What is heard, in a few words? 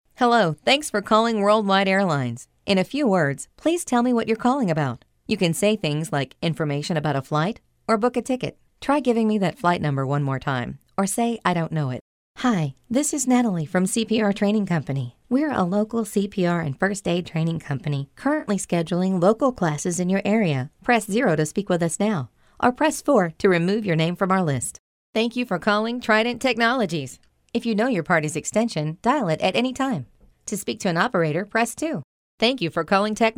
ivr, voice